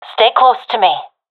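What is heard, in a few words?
request follow me, professional female; vo; Counter Strike Model Pack; CS:GO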